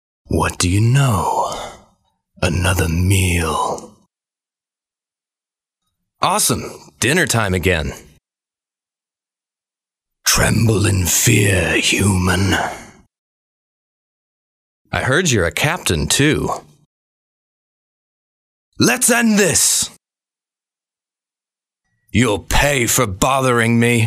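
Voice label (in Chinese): 外籍男英_外语_美式英语_游戏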